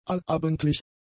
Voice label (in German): "allabendlich", German; adjectives